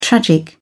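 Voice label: "tragic", British English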